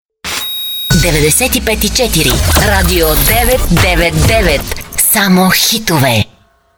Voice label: RADIO ID

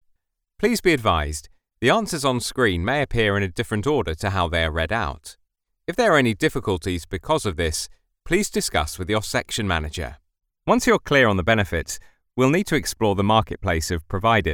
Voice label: elearning

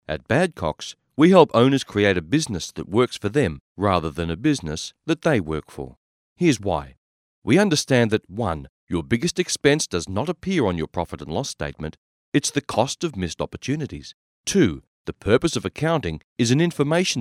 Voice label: IVR

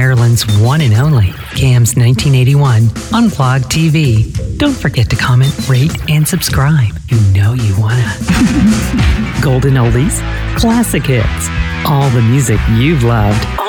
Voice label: Radio Imaging

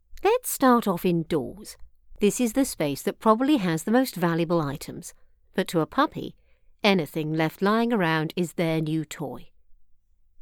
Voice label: Documentary read about puppies

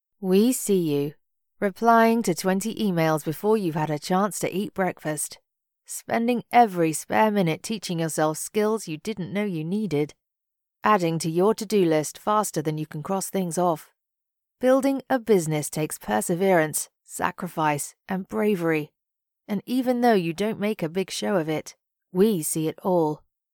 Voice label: UK

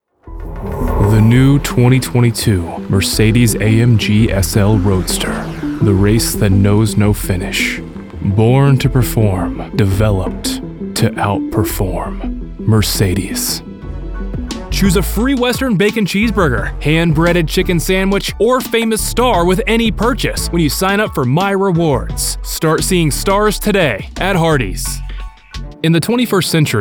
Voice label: Commercial; Mercedes

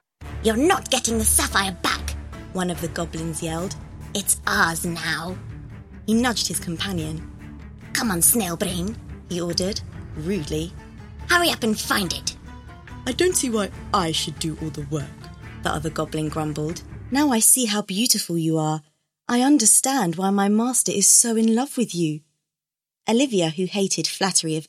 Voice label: Character Showreel

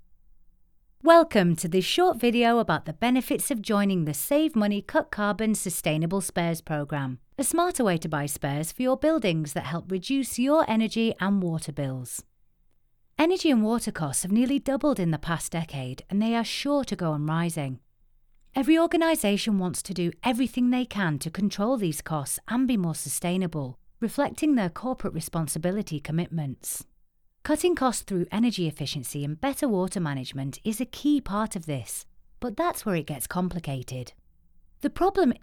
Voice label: Explainer; Sustainable Spares

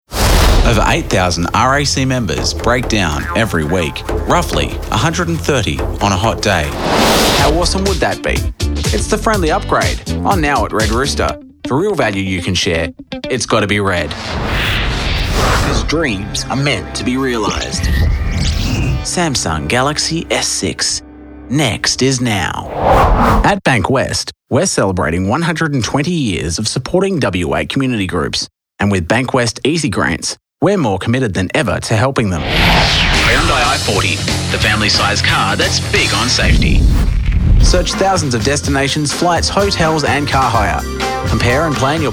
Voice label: VoiceOver

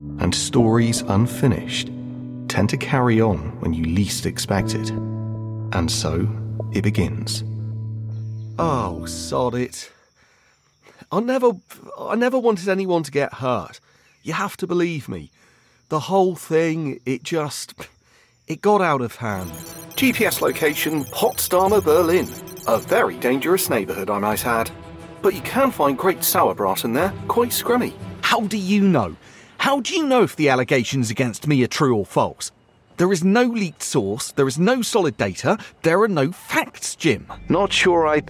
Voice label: Voice Acting

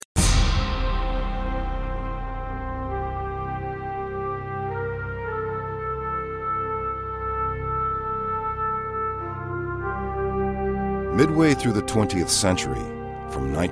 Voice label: Historical Documentary

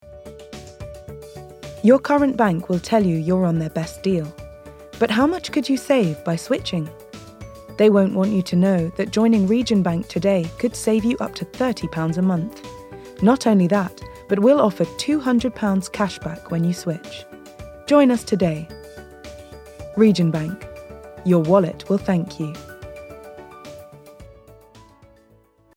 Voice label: commercial financial

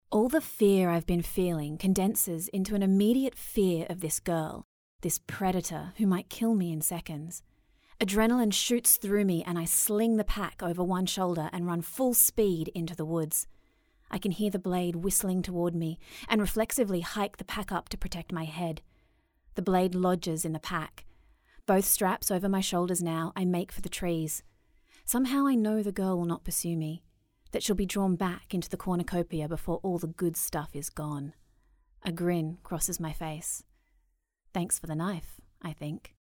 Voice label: Audio Book; HUNGER GAMES

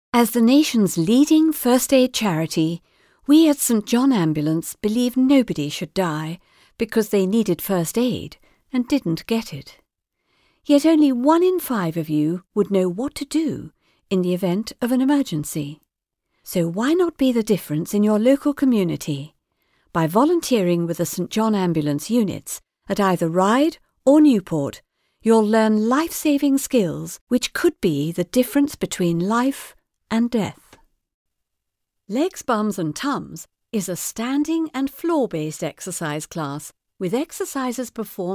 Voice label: Radio Commercials

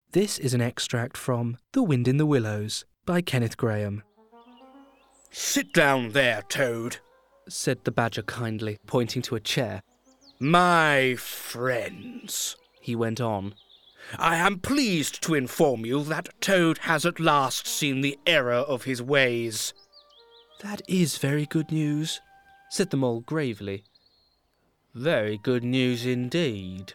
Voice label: Character piece; Wind in The Willows

